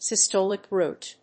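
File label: "systolic bruit", English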